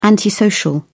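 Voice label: "antisocial", British English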